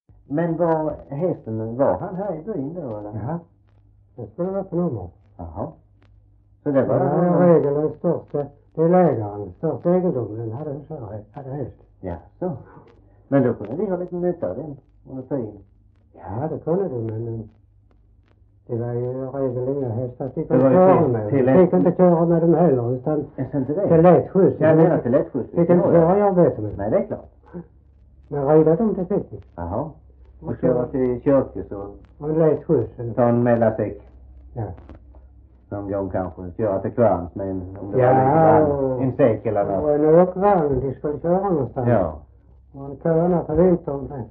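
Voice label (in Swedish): Röstånga Skåne, dialekter; Folkminnen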